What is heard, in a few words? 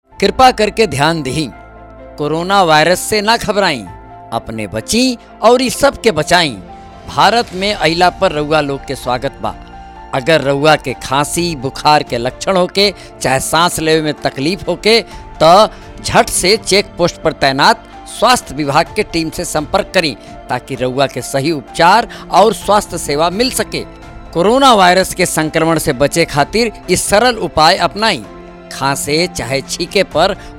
bhojpuri Transit point Spot; Covid19